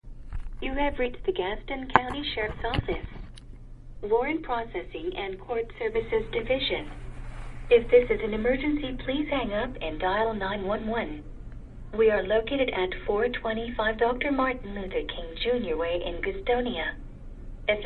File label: voicemail message, Phone Scam; Gaston County; The Gaston County Sheriff's Office